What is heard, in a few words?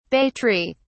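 "bay tree", English, British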